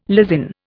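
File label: "lysin", Indian English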